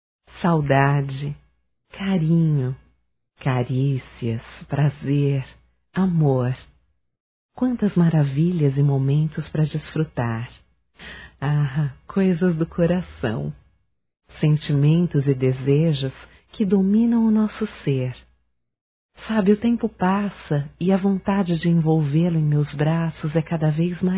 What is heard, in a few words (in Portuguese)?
Mensagem Romântica Feminino, Telemensagens, Só Voz; Telemensagens 2017